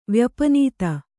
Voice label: "ವ್ಯಪನೀತ", Kannada